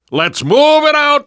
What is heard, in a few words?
move on, survivor voice